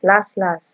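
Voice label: "laslas", Nigerian Pidgin, female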